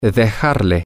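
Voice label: to leave something for him her, Spanish, male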